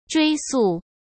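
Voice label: "追溯", Mandarin Chinese; hsk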